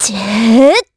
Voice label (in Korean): Vox Casting, kr; Ripine; kingsraid; voices heroes